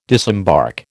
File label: "disembark", American